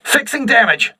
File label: voice message repairing, crew ships english